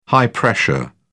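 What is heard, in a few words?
"high pressure", English, British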